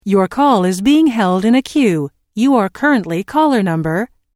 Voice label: Your Call Is Being Held In A Queue You Are Currently Caller Number, Telephone prompt; Recorded Voice Sound Effects; LynneMusic